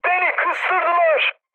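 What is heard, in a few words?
Turkish aircraft destroyed, mood high, speech; War Thunder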